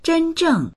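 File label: "zhen1zheng4", Mandarin Chinese; zhēnzhèng; 真正; 本当の、本当に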